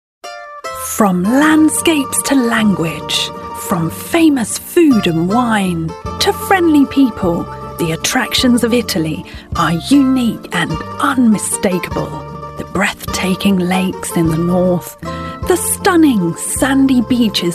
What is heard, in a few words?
Commercial